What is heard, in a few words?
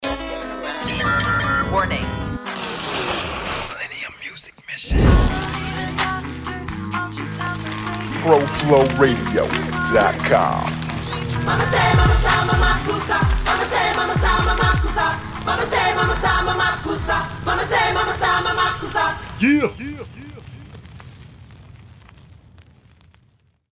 Promo poetry, voice